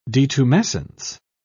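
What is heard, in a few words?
"detumescence", British English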